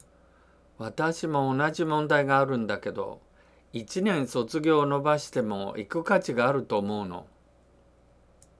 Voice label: dialogue line, japanese